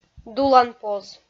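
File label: "дуланпоз", Komi, female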